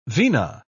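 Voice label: "vina", English, British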